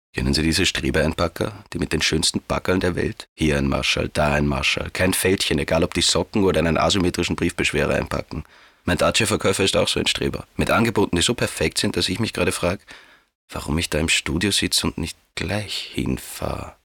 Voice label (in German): Mann